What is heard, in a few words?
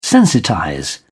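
"sensitize", British English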